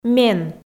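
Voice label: "мен", Komi, female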